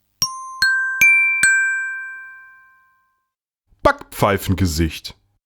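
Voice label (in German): "Backpfeifengesicht", German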